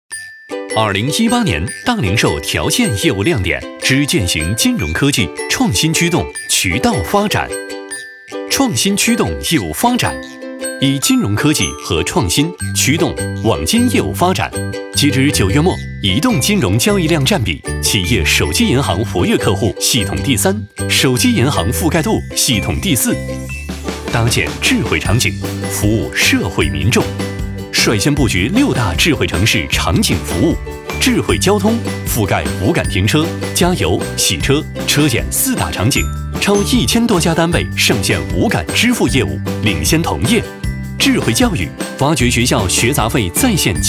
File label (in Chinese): 男, 银行业务, 轻松介绍